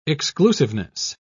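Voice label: "exclusiveness", British English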